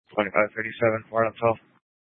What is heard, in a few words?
Mesa County Sheriff Dispatch